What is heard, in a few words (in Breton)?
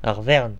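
"auvergne", Breton; france region; gcompris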